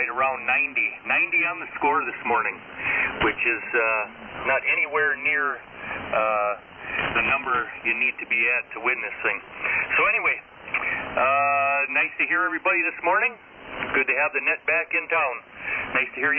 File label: Drake T-4XC & R-4C Shure 444 Mic Homebrew 811A Amp; Forestville, Wi.